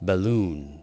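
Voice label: "balloon", spoken word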